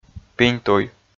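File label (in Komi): "пиньтуй", Komi, male; audio dict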